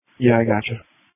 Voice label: Police Tactical Encrypted